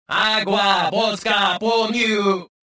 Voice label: suspicious, rodian

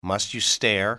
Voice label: stare, english male; XBOX; civ

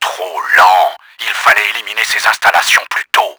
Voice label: failed radar, french; Battle Engine Aquila; XBOX

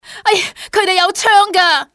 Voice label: those men, civ fmale; XBOX

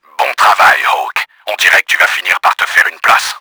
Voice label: happy, french; KRAMER; Battle Engine Aquila

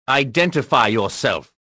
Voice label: suspicious; XBOX

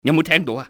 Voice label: did you hear, cantonese male; XBOX; enemy

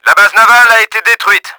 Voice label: NAVAL BASE DOWN, french; Battle Engine Aquila; XBOX

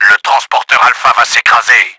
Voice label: ALPHA DOWN, french; Battle Engine Aquila; XBOX

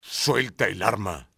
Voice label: drop your weapon, spanish soldier; enemy; XBOX

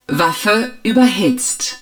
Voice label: HUD weapon overheating, german

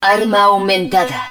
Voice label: HUD Weapon Augmented, Spanish

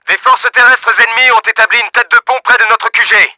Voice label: WARNING, french; Battle Engine Aquila; XBOX

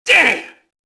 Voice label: mobster alert; Max Payne

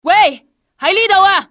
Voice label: over here, cantonese; XBOX; enemy

